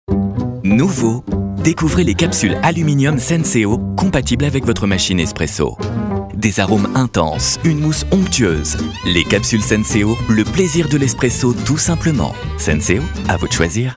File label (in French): positive engaging, french voice over; Senseo